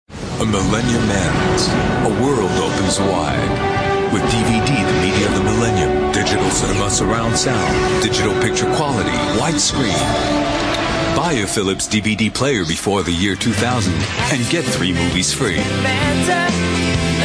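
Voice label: Voix Off Voice Over, bilingue Américain Français; Philips DVD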